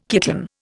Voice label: "kitten", Indian

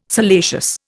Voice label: "salacious", Indian

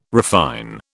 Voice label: "refine", British

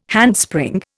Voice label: "handspring", Indian

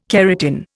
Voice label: "keratin", Indian

